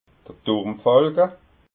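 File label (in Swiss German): "turmfalka", Alsatian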